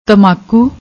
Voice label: "tammakoo", Punjabi; ਤਮਾਕੂ